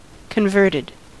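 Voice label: "converted", American English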